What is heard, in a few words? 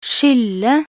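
"skylle", Norwegian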